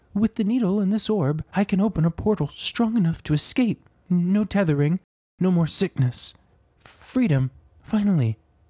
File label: Meek stuttering wizard with nervous disposition, arcane knowledge, and vulnerable humanity, Audiobook NPC; Reuben